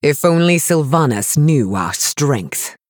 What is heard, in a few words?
Female High Elf